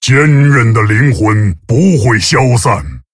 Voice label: Male Tauren TRIGGER Nine Lives; zh

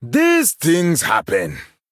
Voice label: Male Troll Oops; en

